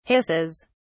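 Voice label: "hisses", British English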